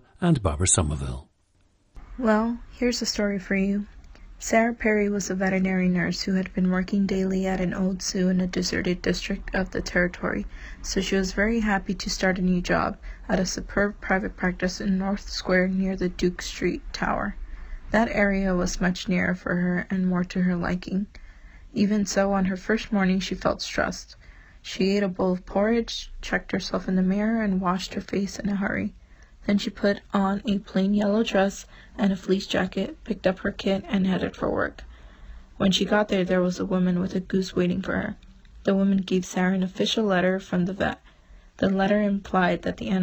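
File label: california, International Dialects of English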